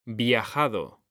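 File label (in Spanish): "viajado", Spanish; mekanta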